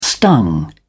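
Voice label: "stung", English, uk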